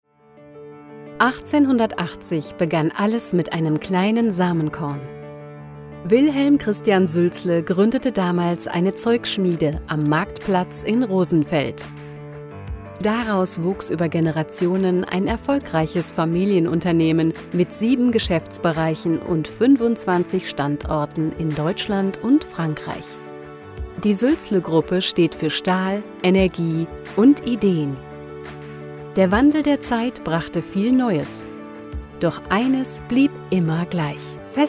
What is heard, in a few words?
DE Imagefilm; SUeLZLE Gruppe